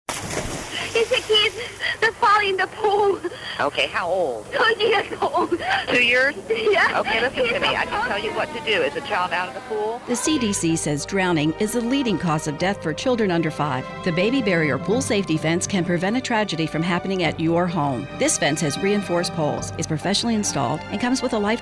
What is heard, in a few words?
Commercial; Baby Barrier Pool Fence of Central Florida